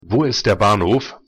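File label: "wo ist der Bahnhof", German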